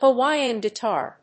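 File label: "Hawaiian guitar", English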